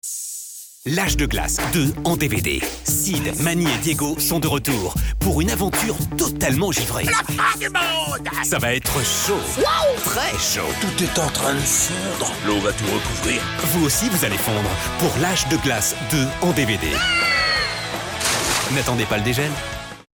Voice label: radio; AGE DE GLACE

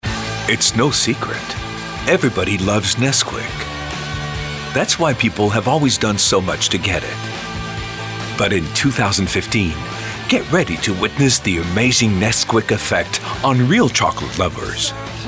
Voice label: dynamique convivial voix off voice over; Chocapic

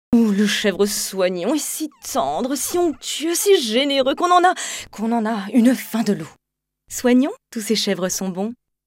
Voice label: pub radio signature; SOIGNON

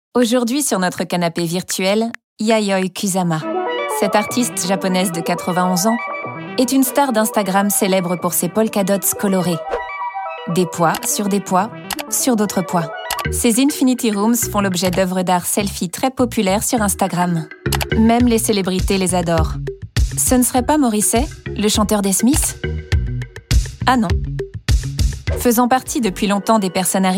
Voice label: narration VF; ARTE; Magculturel

